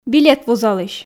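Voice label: "билет вузалысь", Komi, female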